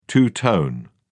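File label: "two tone", English, British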